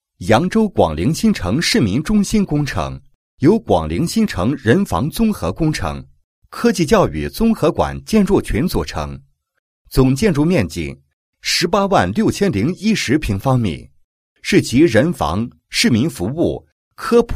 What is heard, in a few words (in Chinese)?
男, 工程; 扬州广陵新城市民中心工程